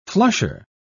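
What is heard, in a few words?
"flusher", British English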